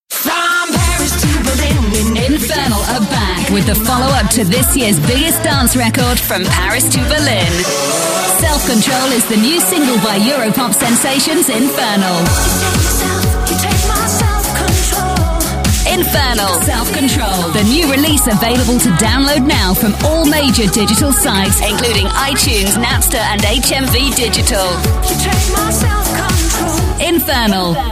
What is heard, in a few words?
Adverts; Voice-over demos; TV Radio Advert